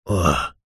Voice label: human male middle aged says oh eh breathy